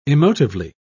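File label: "emotively", British English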